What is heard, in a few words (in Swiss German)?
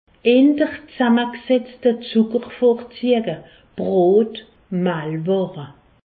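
"brot", Alsace, Haut Rhin; favoriser les sucres complexes pains farineux; medecine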